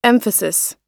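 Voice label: "emphasis", English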